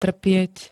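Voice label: "trpieť", Slovak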